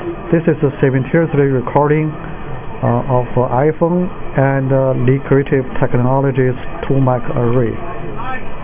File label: single channel smartphone; mic array tablet